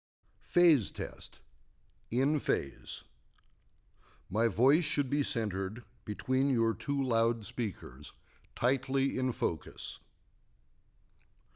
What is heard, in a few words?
Voice in-Phase; Test Burn-In CD